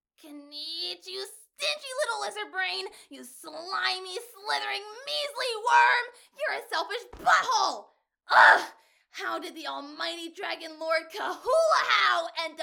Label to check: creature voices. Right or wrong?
Right